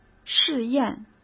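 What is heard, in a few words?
"试验", Mandarin Chinese; chinese-flashcards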